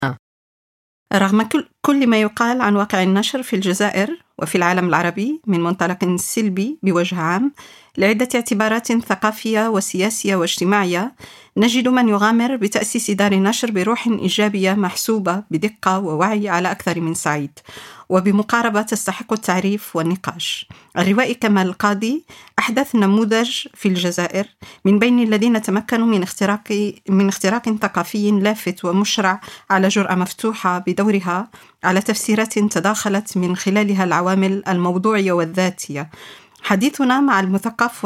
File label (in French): Arabe